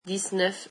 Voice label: french nineteen